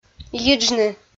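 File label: "йиджны", Komi, female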